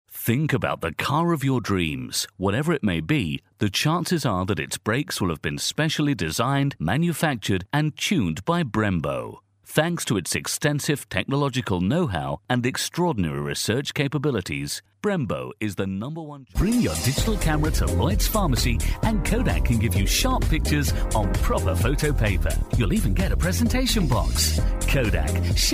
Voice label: male